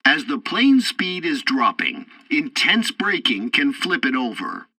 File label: landing breaks warning, tutorial, english; War Thunder